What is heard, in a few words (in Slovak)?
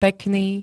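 "pekný", Slovak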